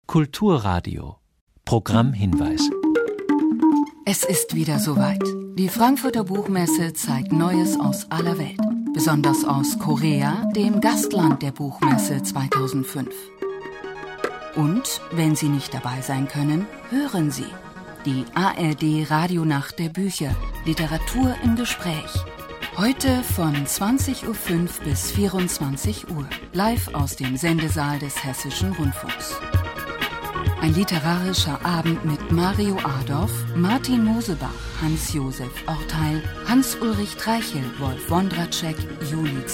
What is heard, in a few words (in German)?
moderation, deutsch frauen; sprecher